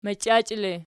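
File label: "machiachele", nominative, Archi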